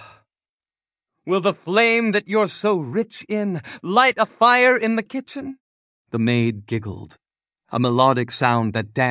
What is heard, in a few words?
There was a Little Man, male, US, story